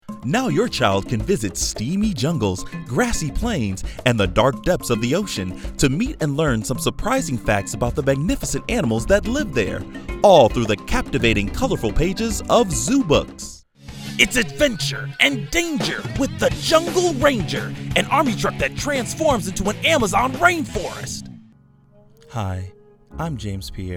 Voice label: Commercial; Commercial Reel